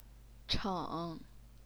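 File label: "cheng", third tone, Chinese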